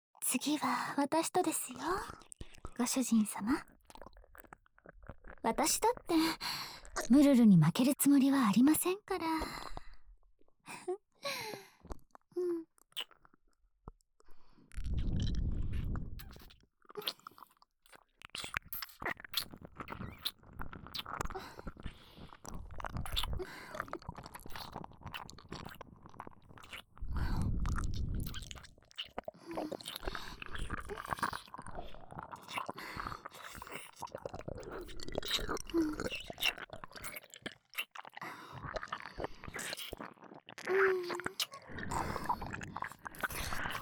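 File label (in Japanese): 耳舐めされながら白エルフメイドに全肯定ご奉仕Hされる音声; ユン（白エルフメイド）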